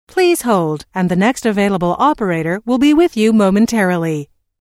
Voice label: Please Hold And The Next Available Operator Will Be With You Momentarily, Telephone prompt